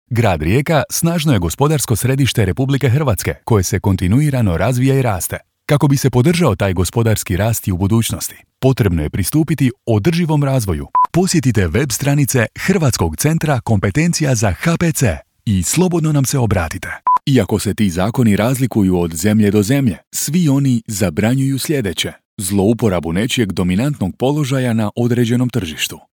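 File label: Croatian M Corporate, male; voice over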